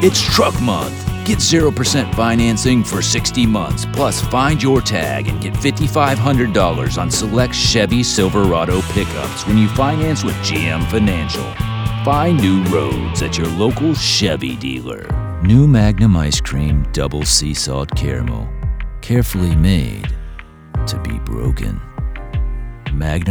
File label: commercial